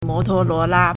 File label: "mo2tuo1luo2la1", Chinese